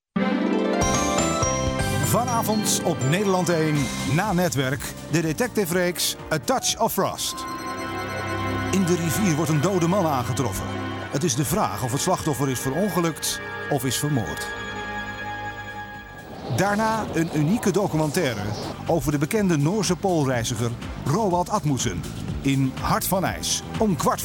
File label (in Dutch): Promo; Nederland